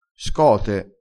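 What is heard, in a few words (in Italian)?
"scote", dialetto crespinese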